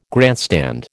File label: "grandstand", American